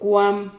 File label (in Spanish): "cuam", Silabas